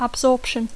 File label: "absorption", English